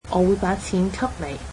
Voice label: I will give you money, cantonese